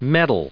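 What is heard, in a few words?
"metal", English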